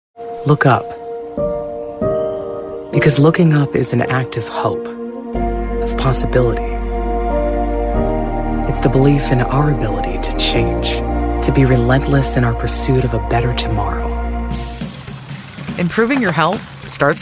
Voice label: Commercial, Authentic, Female Voice Over